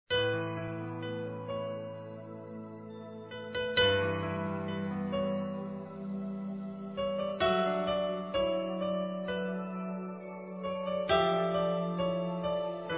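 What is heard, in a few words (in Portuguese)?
ROMANTICA (M), Telemensagens, Românticas masculino; Telemensagens 2017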